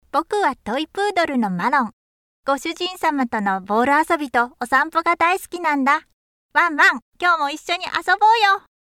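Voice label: Japanese, female, character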